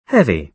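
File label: "heavy", British English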